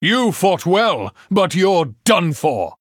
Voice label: Male Human Knockout